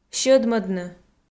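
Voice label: "сьӧдмӧдны", Komi, female; kpv audio dict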